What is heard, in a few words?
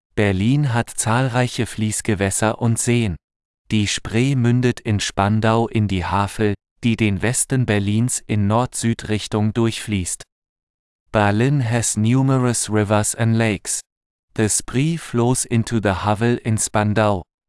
multilingual foreign accent